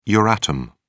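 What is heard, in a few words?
"euratom", British English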